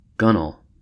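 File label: "gunwale" US English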